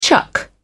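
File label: "chuck", British English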